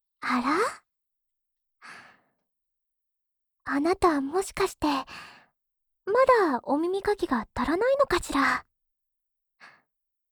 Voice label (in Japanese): おまけトラック オノマトペ(カシカシボイス), ASMR